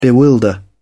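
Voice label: "bewilder", British English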